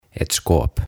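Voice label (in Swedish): "ett skåp", Swedish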